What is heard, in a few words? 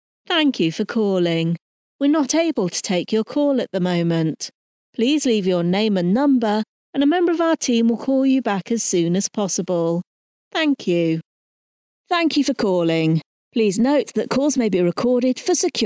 Business voicemails montage